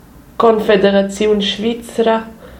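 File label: "Confederaziun svizra", Romansh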